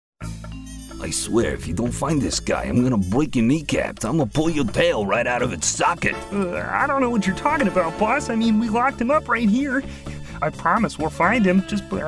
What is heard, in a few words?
Character Voices